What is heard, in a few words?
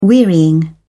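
"wearying", British English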